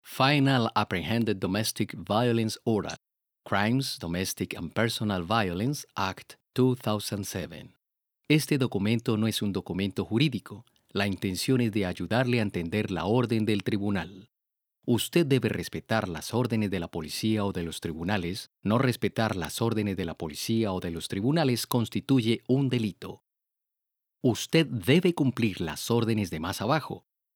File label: introduction spanish